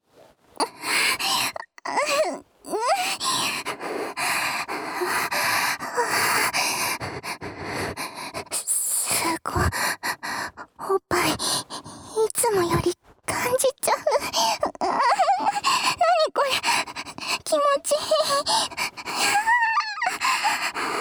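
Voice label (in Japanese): 前戯で感じる耳元囁き（両耳）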